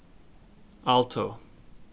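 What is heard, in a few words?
"alto", CAN, male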